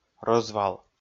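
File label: "рӧзвал", Komi, male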